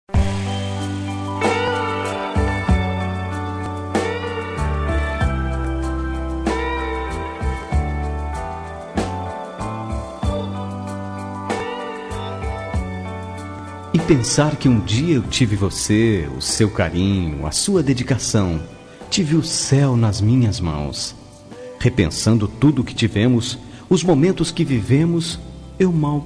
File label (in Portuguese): Romântica Com Lembranças